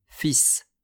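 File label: "fils", French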